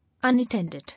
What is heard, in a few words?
"Unattended", English